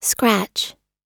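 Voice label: "scratch", English; oxford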